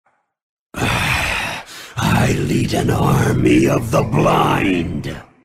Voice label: frustrated, grunt sounds